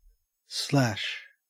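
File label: "slash" English, Australian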